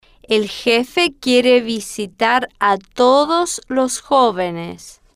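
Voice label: el Jefe Quiere Visitar A Todos Los Jovenes, Spanish, female, slow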